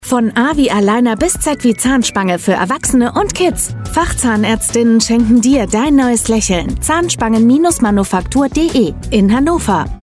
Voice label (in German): Funkspot; Zahnspangenmanufaktur